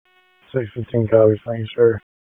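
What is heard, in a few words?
Police Southwest District Dispatch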